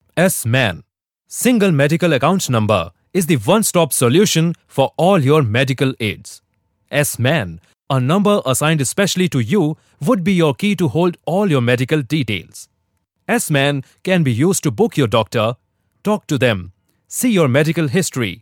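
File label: explainer English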